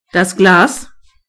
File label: glass, de; gcompris